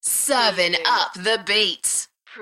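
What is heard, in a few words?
UK female, DJ vocals; beanstalk-audio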